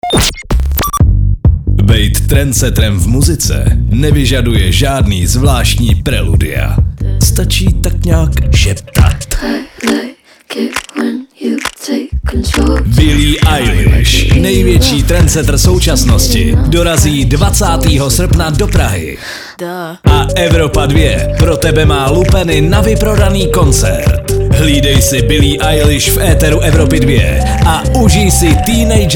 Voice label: promo; evropa2